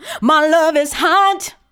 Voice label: MY LOVE IS, FEMALE VOICE; Best Service; Hallelujah